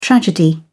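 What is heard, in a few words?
"tragedy", British English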